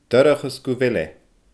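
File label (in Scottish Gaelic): "dorcha s gu bheil e", Scottish Gaelic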